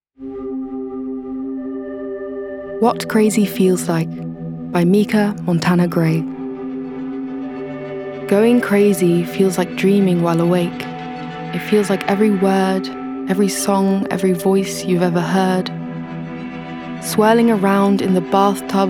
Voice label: Poem UK